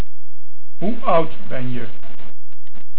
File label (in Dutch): "Oud", Dutch